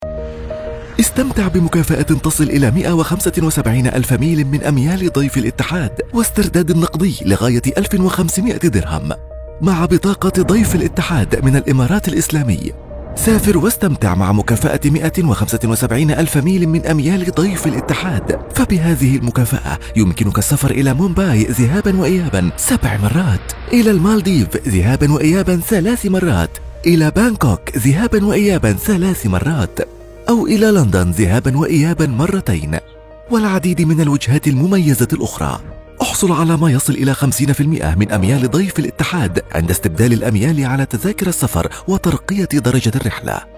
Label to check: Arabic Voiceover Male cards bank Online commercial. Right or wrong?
Right